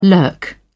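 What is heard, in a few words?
"lurk", British English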